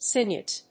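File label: "sinuate", English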